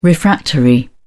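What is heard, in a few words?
"refractory", English, uk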